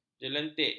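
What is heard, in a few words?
"jelentik", Malay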